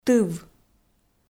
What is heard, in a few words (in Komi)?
"тыв", Komi